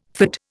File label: "fid", Indian